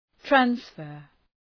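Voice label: "transfer", English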